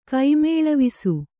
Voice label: "ಕೈಮೇಳವಿಸು", Kannada